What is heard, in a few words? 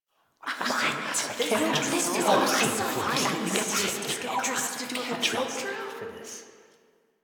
Student Excited; Warframe; The New War; Zariman